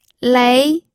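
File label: "lei", second tone, pinyin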